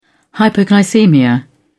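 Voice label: "hypoglycaemia", British English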